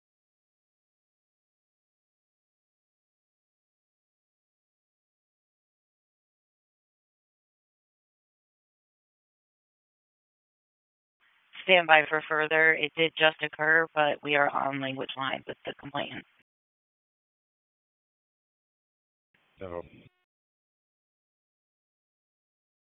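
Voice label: Raleigh Police Northeast District Dispatch, p25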